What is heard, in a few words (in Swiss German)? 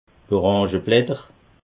"orangeblatter", alsace